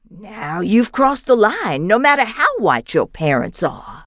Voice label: female ethnic busted; Voices; lucius ii the prophecy